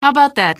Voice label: answer, female; Garry's Mod; hl2